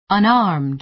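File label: "unarmed", American English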